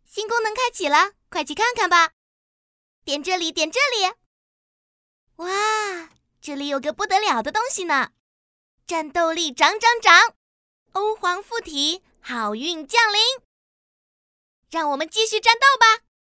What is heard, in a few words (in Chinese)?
萝莉音, 引导语